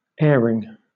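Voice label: "airing" British English; pronunciations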